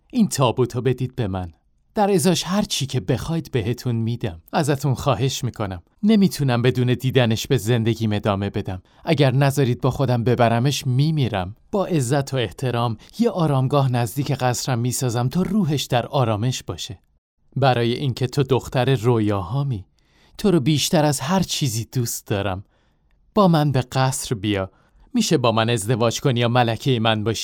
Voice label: Farsi, narration